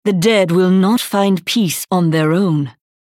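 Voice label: Hero Idle US English, Женщина; Diablo III: обзор обновления PTR 2.6.0 — озвучка некроманта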